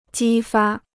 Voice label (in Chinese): "激发", Chinese